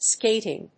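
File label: "skating", English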